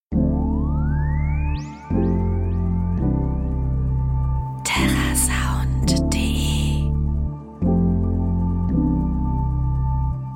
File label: coffee voiceover; 6 am; terrasound